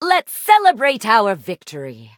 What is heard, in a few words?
victory en